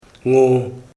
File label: verbs laugh, koro